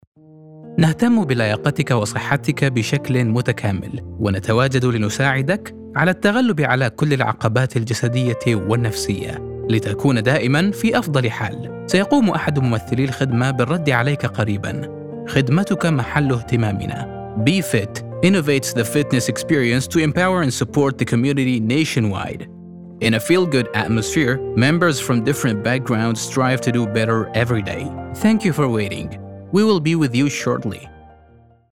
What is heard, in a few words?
IVR